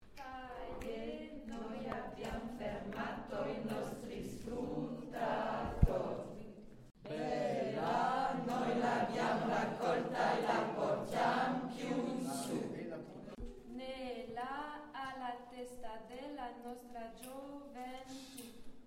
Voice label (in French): Prononciation Bass Alto